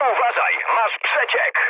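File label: damaged water, mood med, Polish speech; War Thunder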